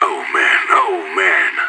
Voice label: scared, radio; SAS

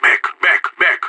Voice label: fallback, radio; SAS

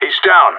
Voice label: enemy down, radio; GSG9

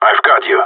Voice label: covering, radio; GSG9

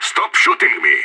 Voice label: friendly fire, radio; SAS

